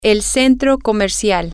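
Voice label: the mall, Spanish, female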